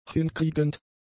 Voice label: "hinkriegend", German; adjectives

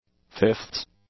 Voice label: "fifths", English; nouns

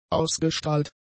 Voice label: "ausgestrahlt", German; adjectives

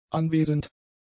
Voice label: "anwesend", German; adjectives

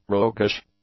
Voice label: "roguish", English; adjectives

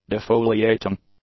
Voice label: "defoliating", english; adjectives

